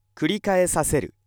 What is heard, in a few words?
male